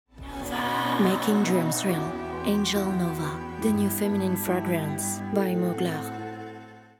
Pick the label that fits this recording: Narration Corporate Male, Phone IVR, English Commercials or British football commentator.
English Commercials